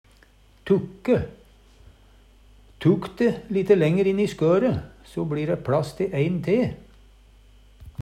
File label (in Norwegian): tukke, Numedalsmål; Verb; tukka